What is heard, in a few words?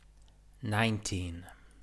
"nineteen", English